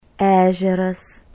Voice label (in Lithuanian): "ezeras", lithuanian